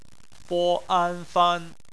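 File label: "fan" bopomofo, Chinese; phonetics; bpmf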